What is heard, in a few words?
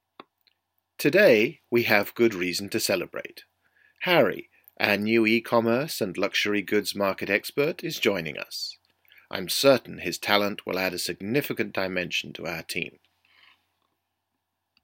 toast speech